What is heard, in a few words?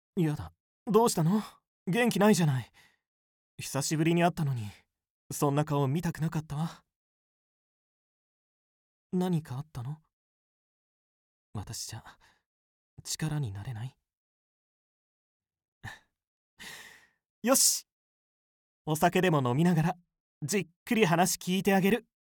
female tone